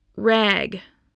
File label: "rag", english